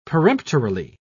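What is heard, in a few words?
"peremptorily", British English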